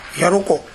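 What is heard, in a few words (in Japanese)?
"yaroko", 南山形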